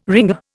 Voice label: "ringer", Indian